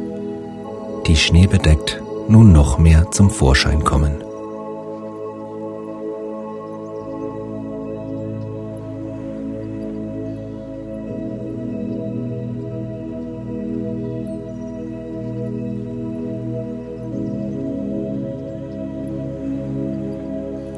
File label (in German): entspannung weihnachten traumhafte winter phantasiereisen, männliche Stimme; Einen schönen Wintertag genießen